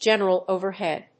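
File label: "General overhead", English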